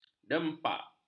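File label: "dempak", Malay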